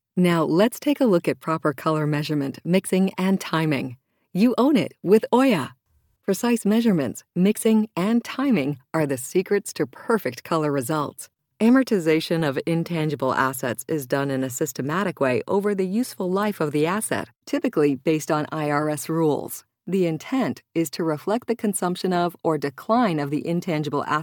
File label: Voice Talent eLearning